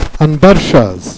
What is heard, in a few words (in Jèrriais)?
"bercheuse", Jèrriais